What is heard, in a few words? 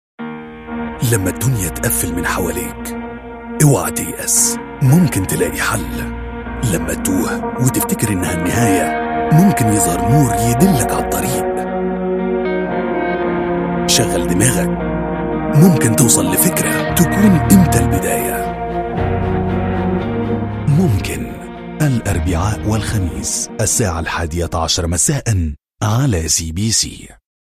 arabic promos